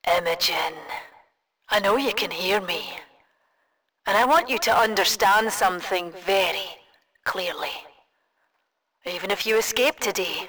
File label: rant; campaign wildfire; imogen